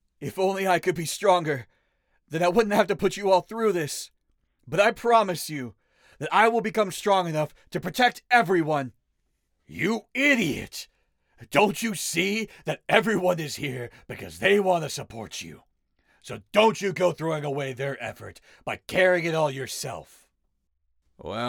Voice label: Animation Samples